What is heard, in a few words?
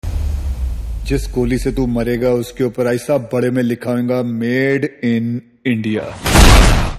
Dialogue Ringtone